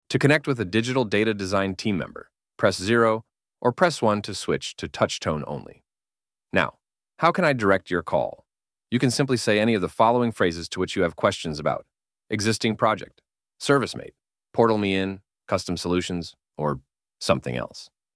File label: Phone Menu; menu options